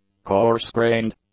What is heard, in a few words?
"coarse-grained", English; adjectives